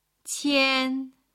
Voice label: "qian1", Chinese